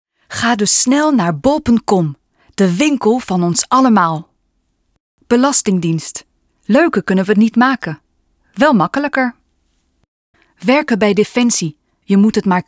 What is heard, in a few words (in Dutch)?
Stemdemo Payoffs NL